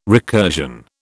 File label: "recursion", British